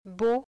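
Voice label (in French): "beau", français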